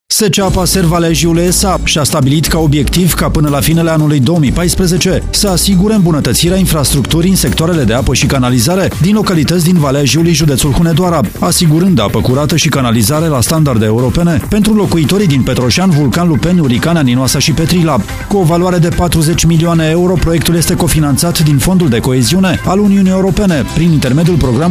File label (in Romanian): spot radio